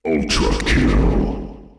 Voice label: "ultrakill", male